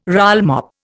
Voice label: "rollmop", Indian

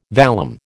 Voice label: "vallum", American

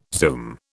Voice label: "zoom", British

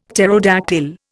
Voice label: "pterodactyl", Indian; Dictionary

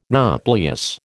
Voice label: "nauplius", American

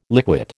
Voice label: "liquid", American

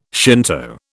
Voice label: "Shinto", British

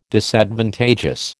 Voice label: "Disadvantageous", American

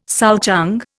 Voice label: "souchong", Indian

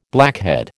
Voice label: "blackhead", American

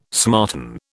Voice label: "smarten", British

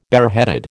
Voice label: "bareheaded", American

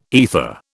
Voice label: "aether", British

